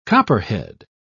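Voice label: "copperhead", British English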